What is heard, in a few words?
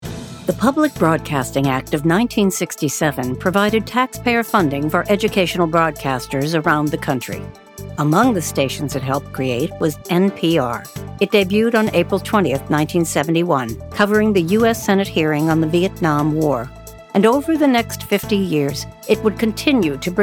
narrative